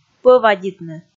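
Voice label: "пӧвадитны", Komi, female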